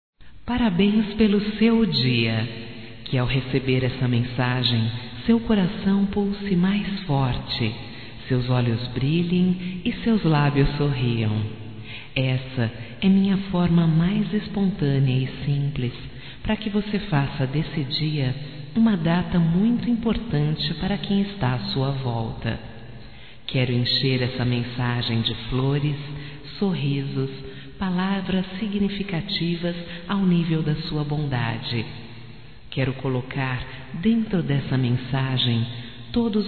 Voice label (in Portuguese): ANIV NEUTRO, fem; Aniversarios; Telemensagens; Telemensagens 2017